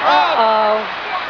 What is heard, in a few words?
uh oh; Susan St. James; WrestleMania; wm2